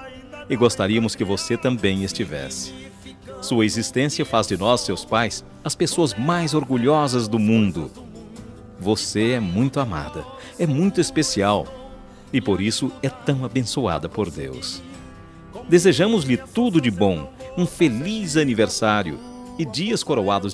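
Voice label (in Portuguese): aniversario evangelico para filha masculino, telemensagem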